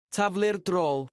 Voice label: throw in the towel welsh; taflu'r drol; to overturn the cart